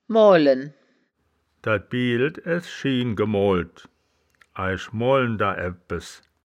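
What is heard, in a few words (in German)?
"moalen", wittlicher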